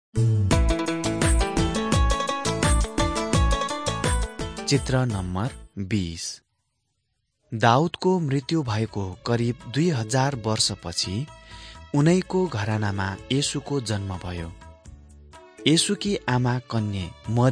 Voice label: servants of god nepali

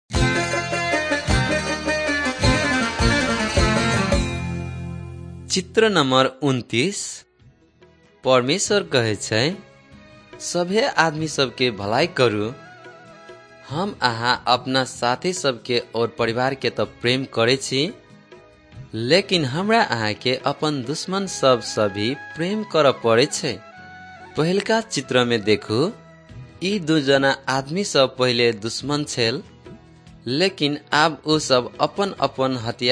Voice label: maithili thetiya

